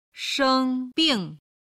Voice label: "sheng1bing4", chinese

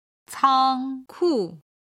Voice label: "cangku", chinese

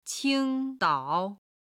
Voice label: "qing1dao3", chinese

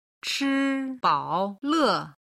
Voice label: "chibaole", chinese

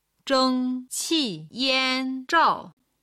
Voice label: "zhengqiyanzhao", chinese

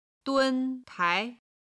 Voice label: "dun1 tai2", chinese

